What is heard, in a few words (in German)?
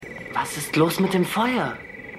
Frierender Junge; Hercules